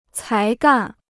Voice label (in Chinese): "才干", Chinese